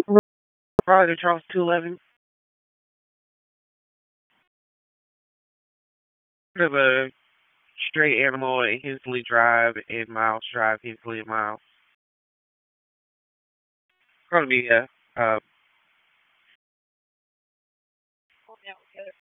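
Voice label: Police Northeast District Dispatch, p25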